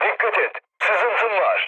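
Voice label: Turkish damaged water, mood low, speech; War Thunder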